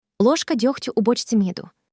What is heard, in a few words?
Ukrainian; Text To Voice; DeepVoice AI